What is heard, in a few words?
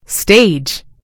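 "stage", British English; gcompris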